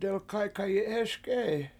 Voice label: "delkAykayi7hEES", Washo; Is He Tall

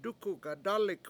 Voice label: "dUk$u gadAlik$" hit him hard, Washo

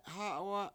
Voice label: "hA7wa7", Washo; four

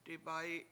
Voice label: "dibAyi7" I bake pies, Washo

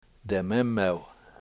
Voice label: "dememew", Washo; his rib

